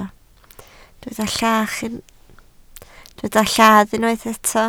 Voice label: "lladd", Welsh

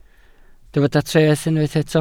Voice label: "treth", Welsh

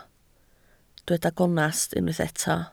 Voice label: "gonest", Welsh

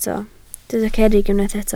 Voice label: "cerrig", Welsh

